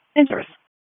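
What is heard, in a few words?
EMS dispatch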